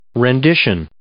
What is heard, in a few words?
"rendition", English